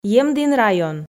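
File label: "емдін район", Komi, female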